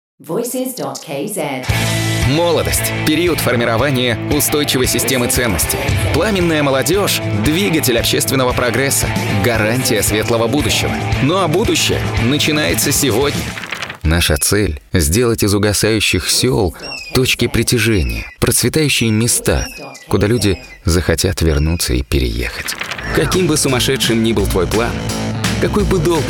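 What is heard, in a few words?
Russian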